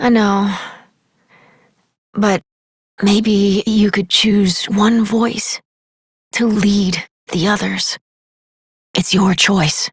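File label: Voice, English; New War; Epilogue; Operator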